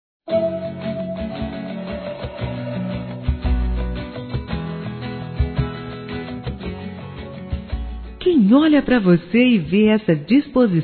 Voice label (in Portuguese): Maternidade Feminino; Telemensagens; Telemensagens 2017